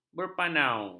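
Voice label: "berpanau", Malay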